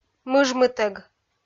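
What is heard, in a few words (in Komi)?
"мыжмытӧг", Komi, female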